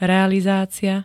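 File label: "realizácia", Slovak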